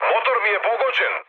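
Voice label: Serbian damaged engine mood med, speech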